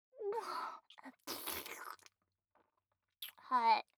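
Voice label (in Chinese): "好", 中文; NG集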